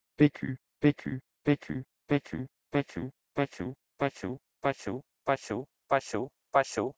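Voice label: Proto-Indo-European peku to Sanskrit pashu; eastern origins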